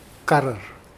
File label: "lime", Mokilese